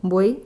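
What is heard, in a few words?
"buey", Spanish; gleitlaute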